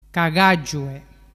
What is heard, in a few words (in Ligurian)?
"cagagiue", dialettu